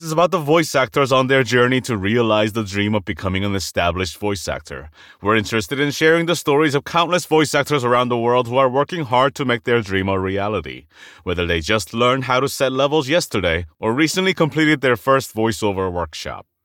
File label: American Podcast